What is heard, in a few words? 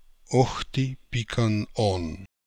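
Okhti Pikan On Russian, Beelzebub pronounciations; Fourth Way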